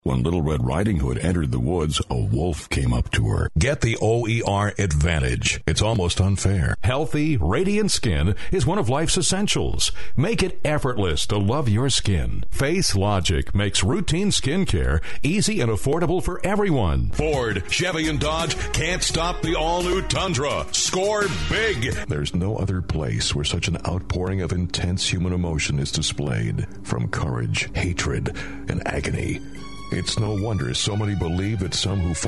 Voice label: male US retail